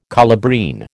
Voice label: "colubrine", American